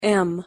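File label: "m" pronunciation English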